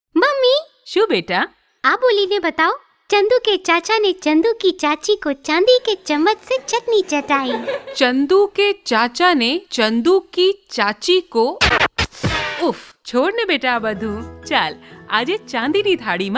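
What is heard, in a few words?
promo Gujarati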